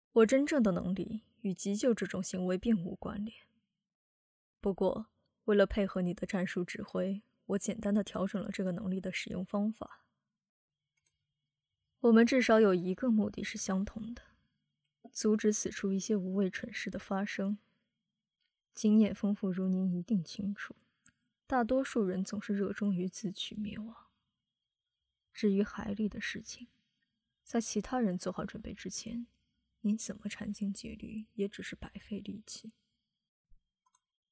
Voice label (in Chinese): 御姐声线展示